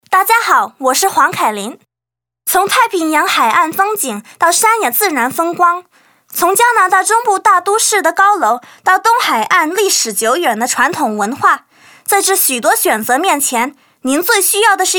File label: mandarin, commercial young talent female